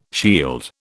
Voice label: "shield", British